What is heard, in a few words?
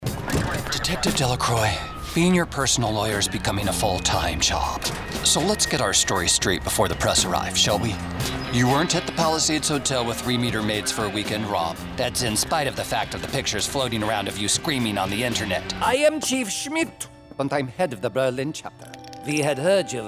Characters Animation